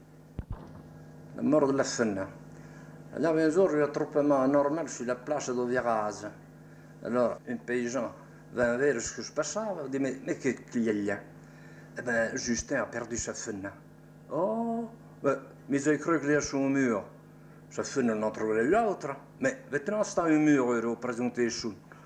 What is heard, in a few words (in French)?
Devinette patois